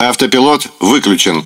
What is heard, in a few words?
Ru Speech Autopilot Disabled; World Of Warships